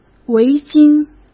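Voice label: "围巾", Mandarin Chinese; chinese flashcards; hsk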